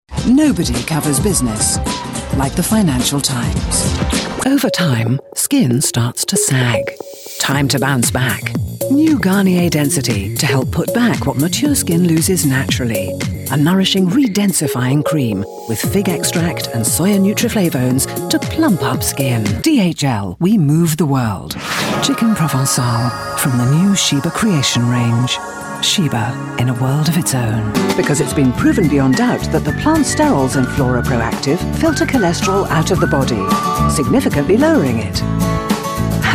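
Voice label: UK female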